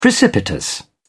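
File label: "precipitous", British English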